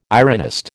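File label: "ironist", American English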